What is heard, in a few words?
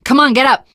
revive friend, survivor voice teen girl; Left 4 Dead 2; Source Engine; video games